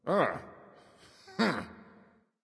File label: Lobby Landing VOX F; smite; kumbhakarna